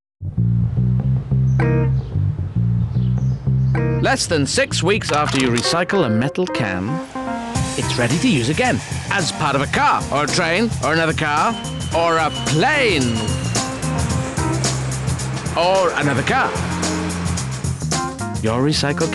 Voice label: Commercial; Natural Accents: Neutral, RP